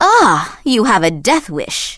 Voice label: Blood Elf Female Standard Pissed